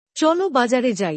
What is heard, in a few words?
Let's go to the market, Bangla; Time and Routine